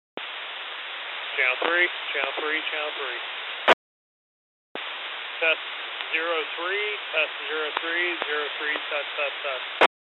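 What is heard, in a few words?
Testing, Satcom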